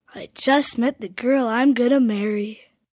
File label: Girl Im Gonna Marry, Adult Phrase, 1 boy, 11 yrs